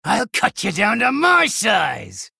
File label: male gnome threaten